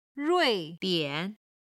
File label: "rui4dian3", Chinese